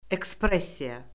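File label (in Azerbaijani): "ekspressiya", Azerbaijani; Orfoqrafiya Lüğəti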